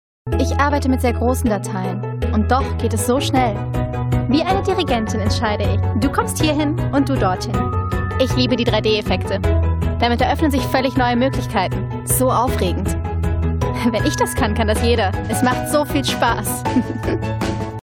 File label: COMMERCIAL German; Microsoft